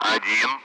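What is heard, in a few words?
Speech Russian; Allied Flight; Digits; DCS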